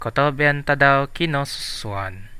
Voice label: birthday, central dusun